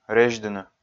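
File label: "резьдыны", Komi, male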